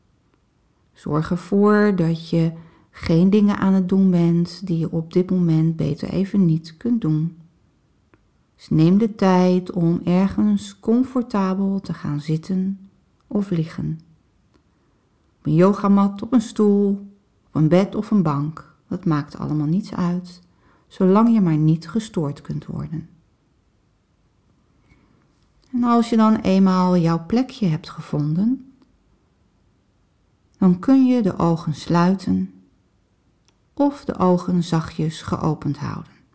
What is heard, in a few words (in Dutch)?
meditatie; help hoe begin ik met mediteren